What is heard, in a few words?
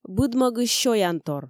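Komi, female; быдмӧгысь сёянтор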